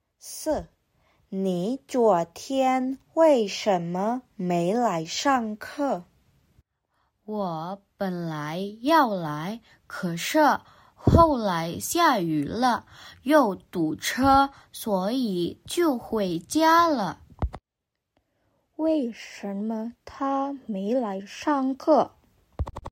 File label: Mandarin; mandari placement test hsk3